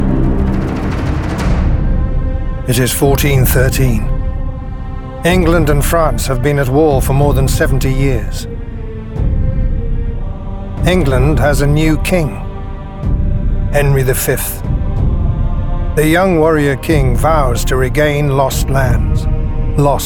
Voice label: Documentary